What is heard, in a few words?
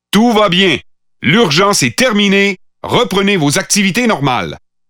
Pathfinder French Standard Canadian